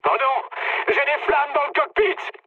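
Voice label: on fire, mood medium, French; War Thunder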